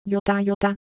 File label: Japanese, ideophones; Motion